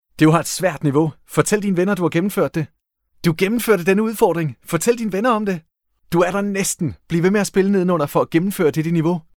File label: Danish video games